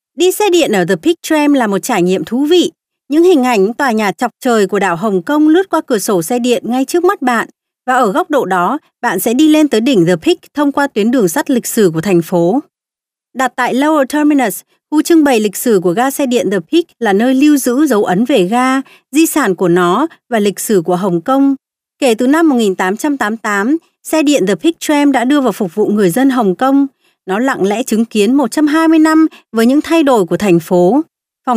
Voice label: Female Vietnamese